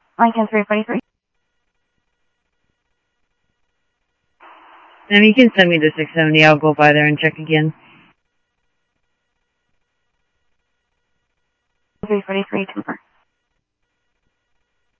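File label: Sheriff West County, scanner audio trunk; MCSO - WEST; ThompsonPeakScot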